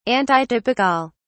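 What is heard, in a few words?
"antitypical", British English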